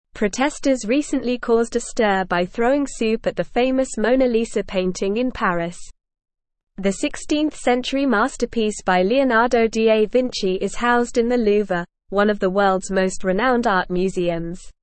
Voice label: English Newsroom Advanced NORMAL Reading; Protesters throw soup at glass protected Mona Lisa painting

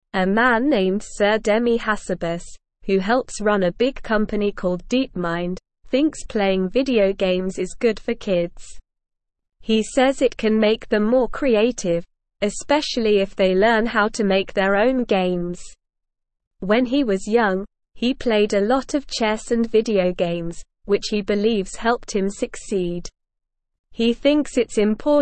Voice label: English Lower Intermediate SLOW Reading; Video Games Help Kids Think and Create

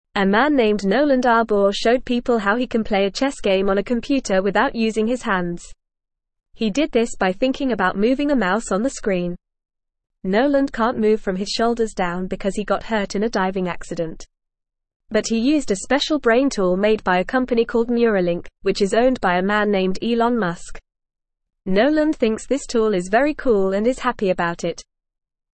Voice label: English FAST Reading; English Newsroom; Man Plays Chess with Brain Tool No Hands Needed

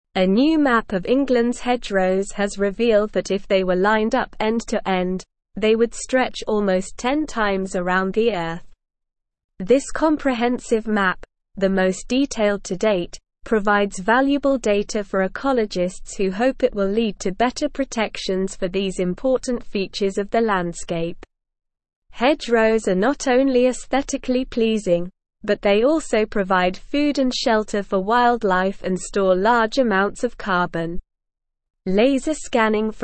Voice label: English SLOW Reading; Englands Hedgerows A Vital Resource for Wildlife and Carbon

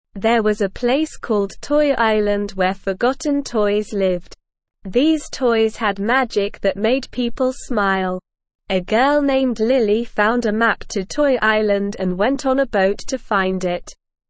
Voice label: ESL Short Stories for Kids Beginner SLOW Reading; The Island of Lost Toys

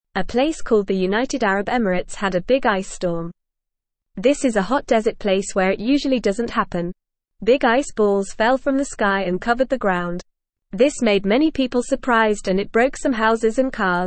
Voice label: English Beginner FAST Reading; Ice Storm in United Arab Emirates A Surprising Weather Event

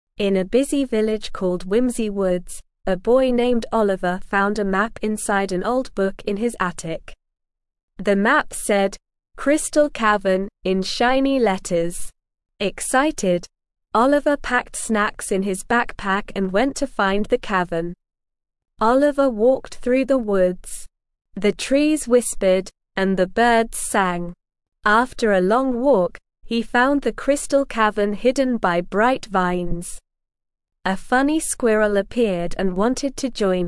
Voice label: ESL Short Stories for Kids Beginner SLOW Reading; The Secret of the Crystal Cavern

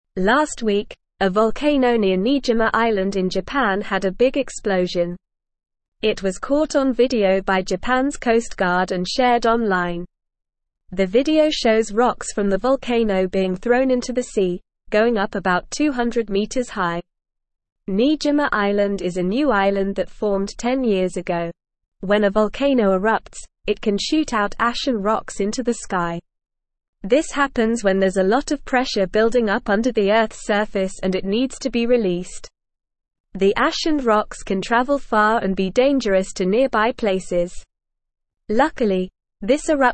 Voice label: English Upper Intermediate NORMAL Reading; English Newsroom; Explosive Eruption on Niijima Island in Japan

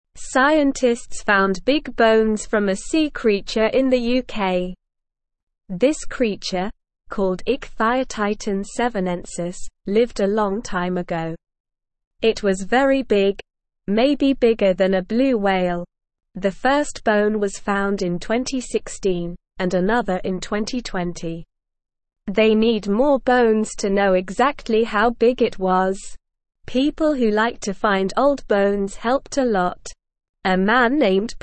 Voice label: English Newsroom Beginner SLOW Reading; Giant Sea Creature Bones Found in UK

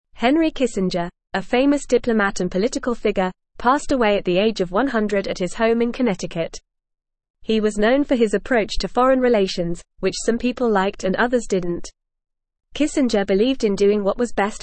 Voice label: English Newsroom Upper Intermediate FAST Reading; Henry Kissinger Controversial Diplomat and Influent

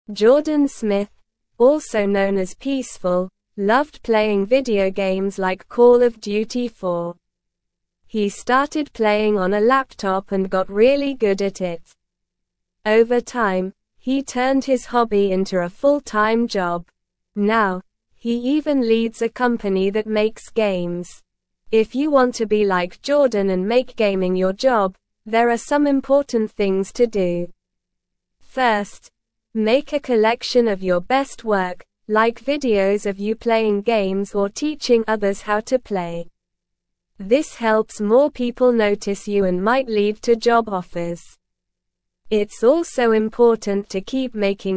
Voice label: English Lower Intermediate SLOW Reading; Playing Games Can Be a Job

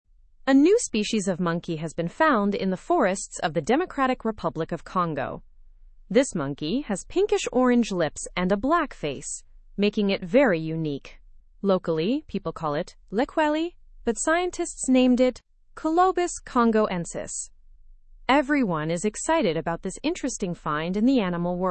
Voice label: english through news lower intermediate; unique monkey species found in drc forest

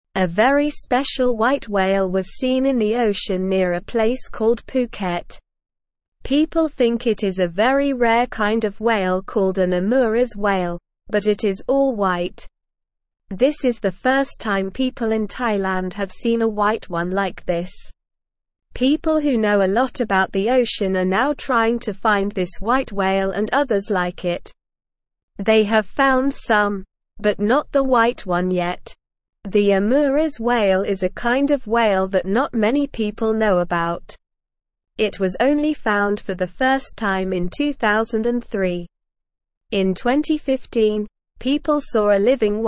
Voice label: English Beginner SLOW Reading; English Newsroom

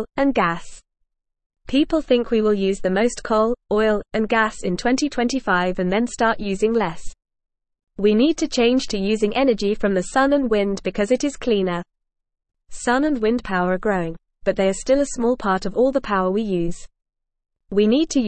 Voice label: Beginner FAST Reading, English; English Newsroom

